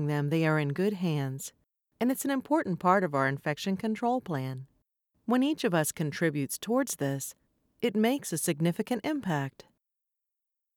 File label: hospital promo